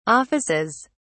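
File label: "offices", English, British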